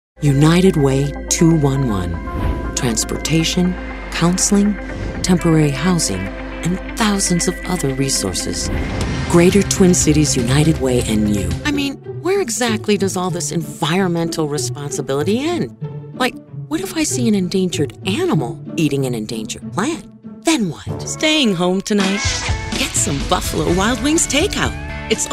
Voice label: Commercial